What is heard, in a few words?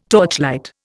"torchlight", Indian